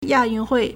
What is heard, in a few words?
"ya4yun4hui4", Chinese; proper noun; Asian Games; Sport 体育运动